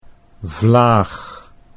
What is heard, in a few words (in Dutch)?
"vlaag", Dutch